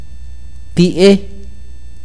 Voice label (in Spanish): idioma, akateko